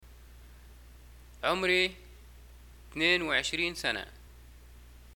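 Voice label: im 22 years old, Libyan arabic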